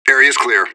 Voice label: radio bot clear; fbihrt

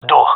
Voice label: loc door, gendarmerie male; vo

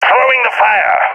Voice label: throwing fire, balkan; vo; balkan epic

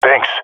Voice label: thankful, jungle male epic; Source Model Packs; Counter Strike Model Pack; CS2

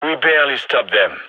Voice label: radio bot end close; vo; gign

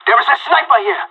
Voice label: sniper warning, pirate; vo; Counter Strike Model Pack; CS:GO

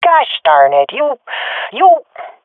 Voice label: sees friend killed, swat fem; Counter Strike Model Pack; CS:GO

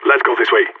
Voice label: radio follow me; Counter Strike; CS:GO; phoenix

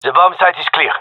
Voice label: bombsite clear, gendarmerie male; vo

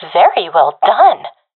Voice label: sees enemy killed, professional fem; vo; Counter Strike Model Pack; CS:GO

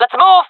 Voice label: request move, jungle fem; player vo jungle fem; Counter Strike Model Pack; CS:GO

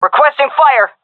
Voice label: request fire, vo fem; agents; Source Model Packs; Counter Strike Model Pack; CS2

